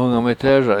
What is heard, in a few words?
How Do You Say It, washo; hungamithEESa